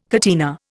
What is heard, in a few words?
"catena", Indian